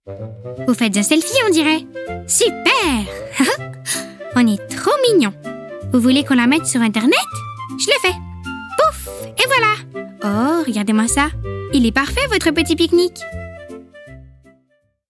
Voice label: Cartoon, voix

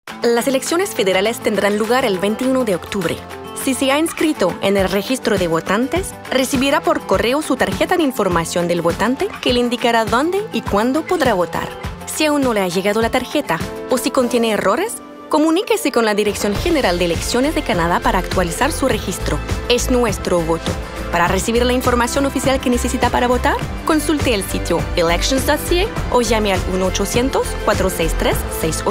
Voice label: voix espagnol

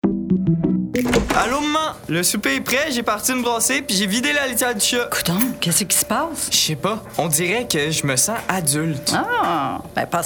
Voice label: Jeunesse Jeunes AudioNum FR Spotify; voix jeune; Desjardins jeunesse; 12-17

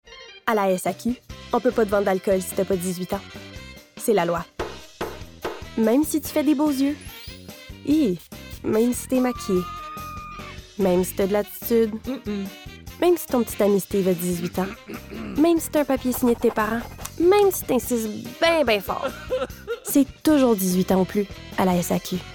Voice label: Publicite; SAQ

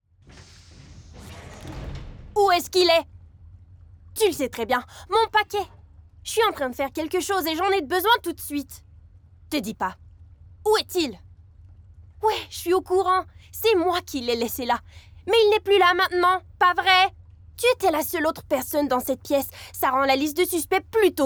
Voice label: Doublage; The Deep

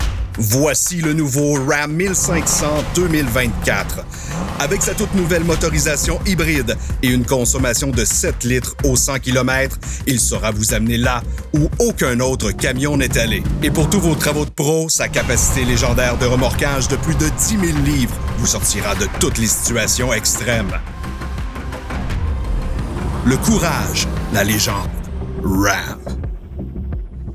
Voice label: Demo Voix, Dodge Ram

